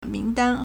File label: "ming2dan4", Chinese